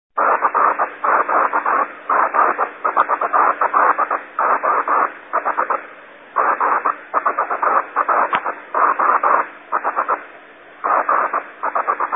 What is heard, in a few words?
2m, vhf